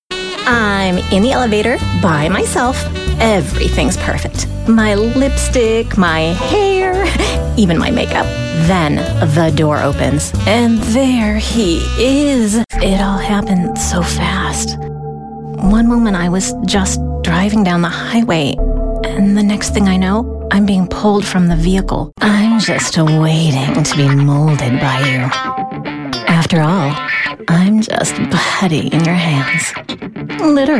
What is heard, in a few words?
english us and canada female commercial